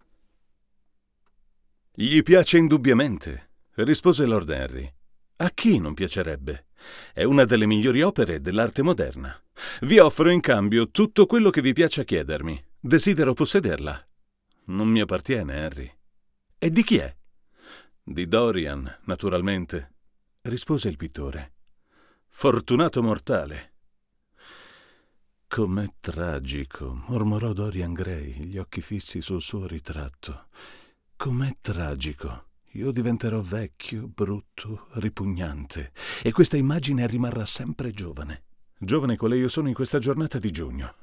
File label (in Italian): U87AI per confronto vs sEX1T